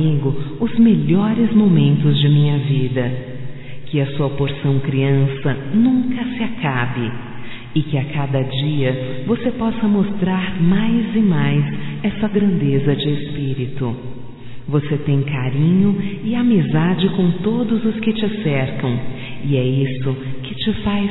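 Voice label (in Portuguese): voz Aniversário amiga como irmã, Telemensagens, fem; Telemensagens 2017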